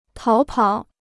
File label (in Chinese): "逃跑", Chinese